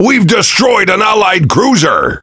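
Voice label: Pirate Speech Destroy Ally Cruiser, English pirate; World Of Warships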